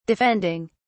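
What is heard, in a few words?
"defending", British English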